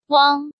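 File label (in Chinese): "Wang", Chinese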